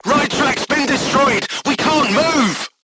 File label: voice message right track knocked down, crew tanks portuguese